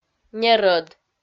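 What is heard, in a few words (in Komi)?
"нерӧд", Komi, female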